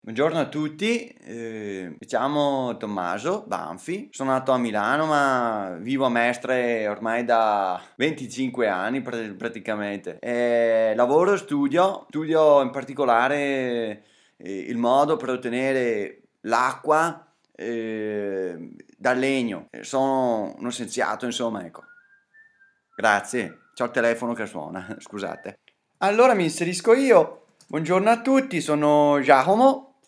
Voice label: dialetti

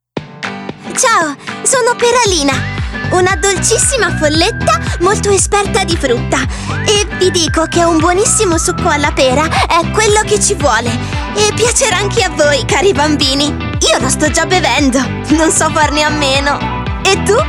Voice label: bambini

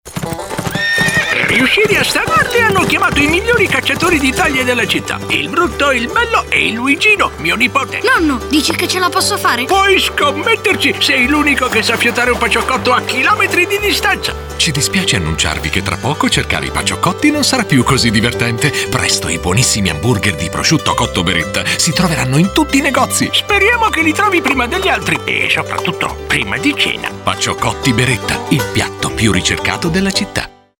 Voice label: un simpatico nonnetto

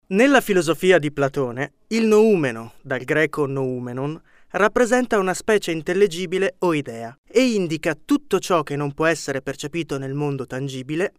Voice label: istituzionale

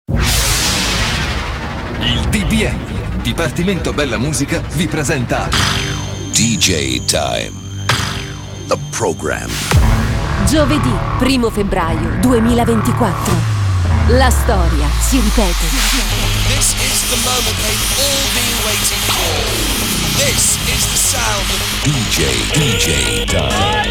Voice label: promo radio

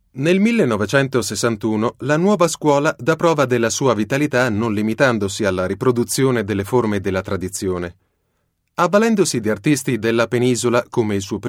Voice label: documentario

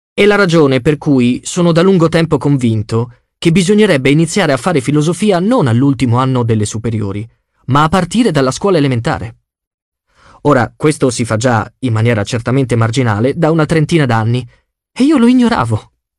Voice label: audiolibro la filosofia dei bambin

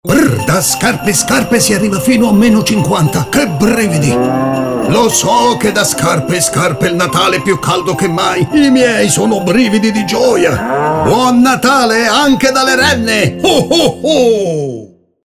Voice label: pubblicita; scarpe

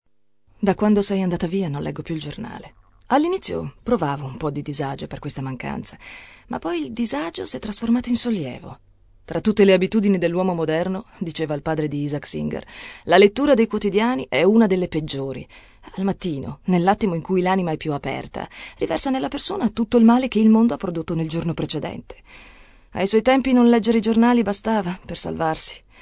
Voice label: audiolibro

